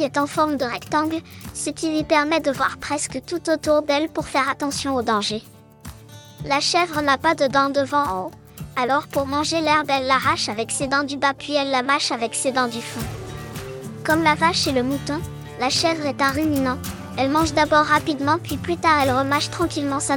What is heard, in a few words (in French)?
chevre enfant, explication; ranch du moulin st martin